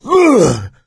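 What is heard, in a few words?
boomer reaction, voice, male; Left 4 Dead 2; coach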